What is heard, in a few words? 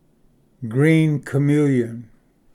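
"green chameleon", English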